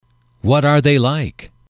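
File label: "what are they like", English, male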